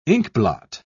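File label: "inkblot", British English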